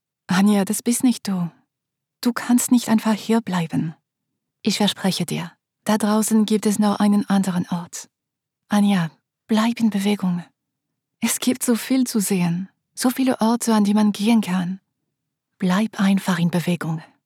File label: german narration sample